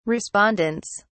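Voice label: "respondents", British English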